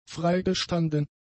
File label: "freigestanden", German; adjectives